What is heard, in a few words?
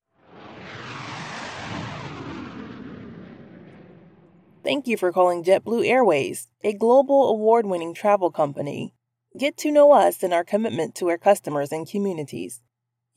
TELEPHONE